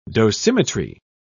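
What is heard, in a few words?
"dosimetry", British English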